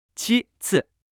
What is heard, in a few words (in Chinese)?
"七次", Mandarin